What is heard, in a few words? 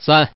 Man